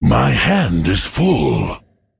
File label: Male Emote ERROR HAND FULL